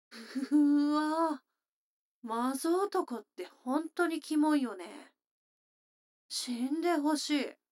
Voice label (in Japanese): 女の子台詞, ASMR